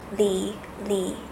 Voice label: li female